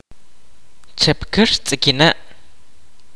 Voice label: "kingfishers", kaqchikel

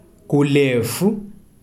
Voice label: "long", Kalanga

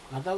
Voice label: big brother, Aneityum

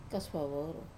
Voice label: "kasuvavoru", morning, wamesa

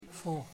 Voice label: pig, Kamba

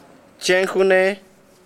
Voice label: "hija", saliba

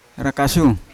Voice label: "rakasyu" sew, Yawa

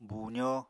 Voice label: "buuzó", Apatani

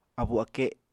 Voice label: "abu-ake", apatani

